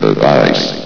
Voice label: "device", vox; Source Engine; bshift